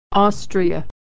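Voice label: "Austria", American English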